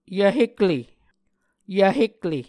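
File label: "yvhekle", Muscogee word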